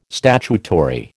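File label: "statutory", American English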